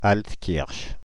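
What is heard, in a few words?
"Altkirch", French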